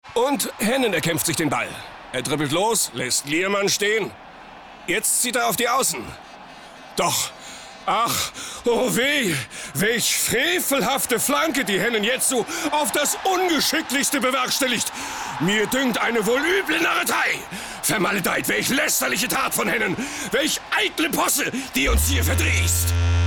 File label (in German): IM RADIO Funk Off; LOFT VOICES